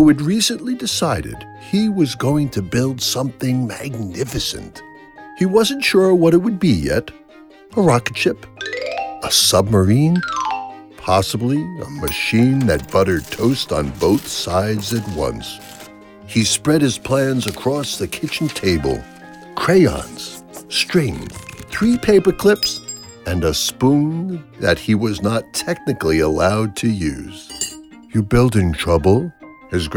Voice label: Children Story